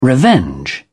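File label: "revenge", British English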